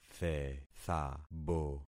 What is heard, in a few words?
"thethabo", concat syllables; Affixing experiment